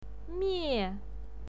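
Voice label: "mie", Mandarin Chinese, fourth tone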